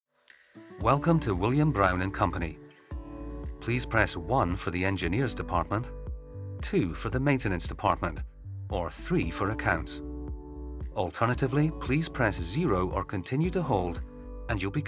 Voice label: IVR, Scottish voice overs